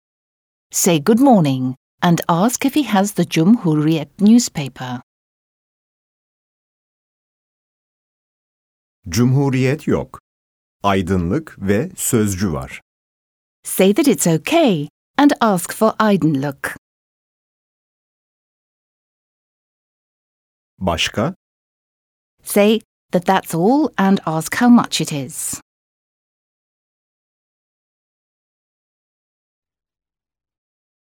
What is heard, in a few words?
Speaking, Turkish